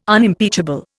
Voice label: "Unimpeachable", Indian